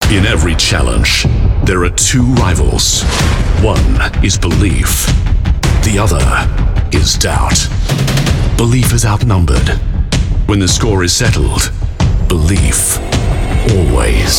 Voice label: AU English; Serco